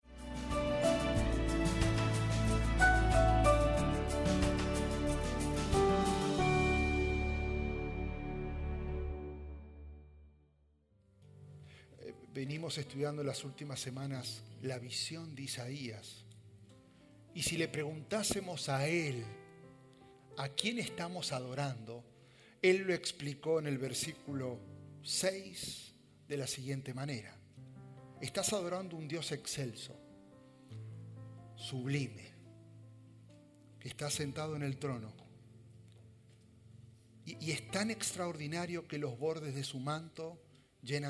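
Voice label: SERMON SPAN, Spanish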